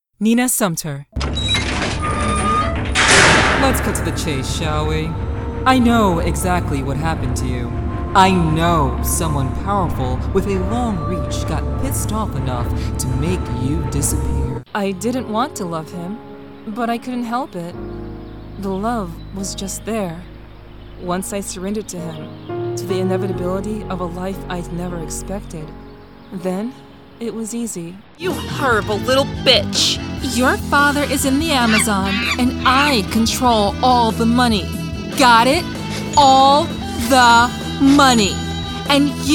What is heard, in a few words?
female character